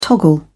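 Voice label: "toggle", British English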